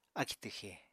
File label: "akì twxè", Apatani